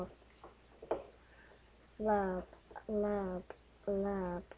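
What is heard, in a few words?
"rub", spoken word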